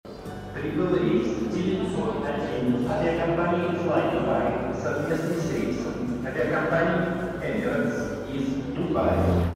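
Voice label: announcement of arrival of plane from Dubai at Koltsovo airport; Звуки аэропорта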